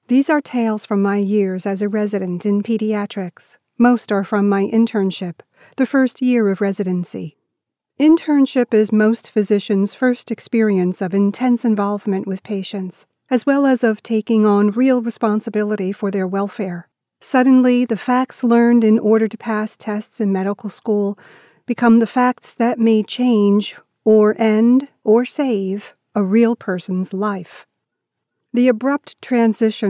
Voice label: Audiobook Memoir

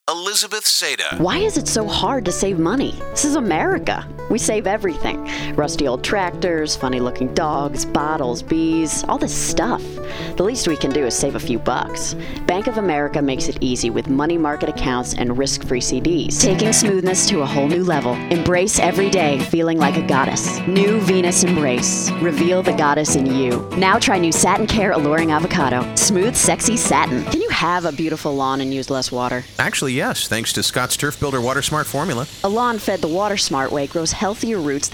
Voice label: Commercial

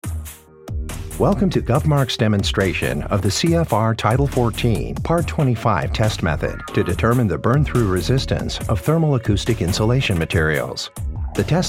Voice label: eLearning

